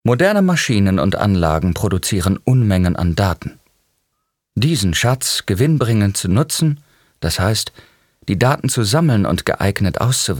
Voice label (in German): Werbung